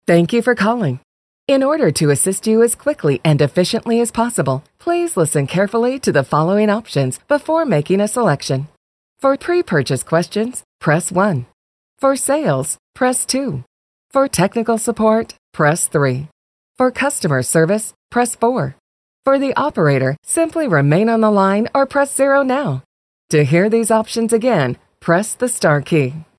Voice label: female ivr